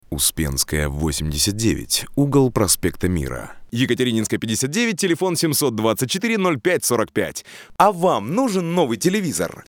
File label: RUS